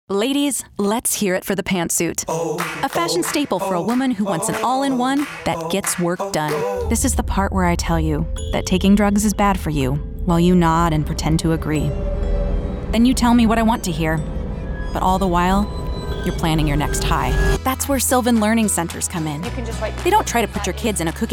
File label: Commercial